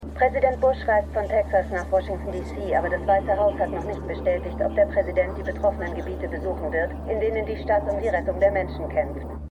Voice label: TV Sprecherin; MEMORIAL HOSPITAL - DIE TAGE NACH HURRIKAN KATRINA (DF 2022); Five Days at Memorial

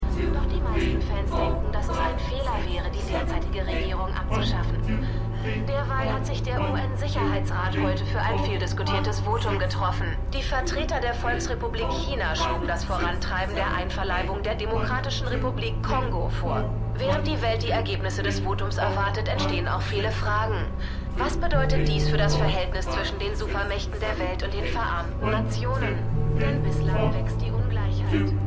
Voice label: Nachrichtensprecherin

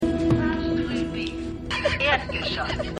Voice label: Fahrstuhl Stimme; This Is Us

